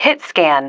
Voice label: "hitscan", female

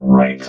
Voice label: "right", robot

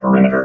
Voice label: "perimeter", robot

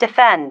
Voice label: "defend", female

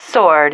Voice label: "sword", female

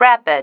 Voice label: "rapid", female

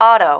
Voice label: "auto", female

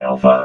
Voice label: "alpha", vox robot

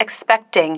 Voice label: "expecting", female

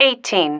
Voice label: "eighteen", female

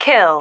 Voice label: "kill", female

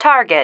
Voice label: "target", female

